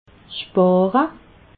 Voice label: "spare", alsace

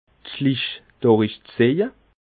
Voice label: "schlich", alsace

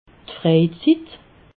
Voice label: "frejzitt", alsace

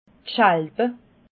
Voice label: "chalbe", alsace

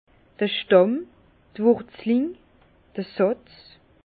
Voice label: "stamm", Bas Rhin; vin; souche

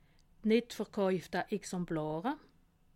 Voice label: "verkaufte", alsace

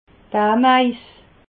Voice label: "ameis", alsace

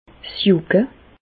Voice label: "jucke", Bas Rhin; medecine; prurit

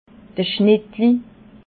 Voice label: "schnittlauich", alsace

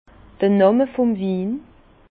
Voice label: "namme", Bas Rhin; vin; nom du vin

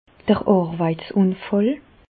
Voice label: "arweitsunfall", Bas Rhin; medecine; accident de travail

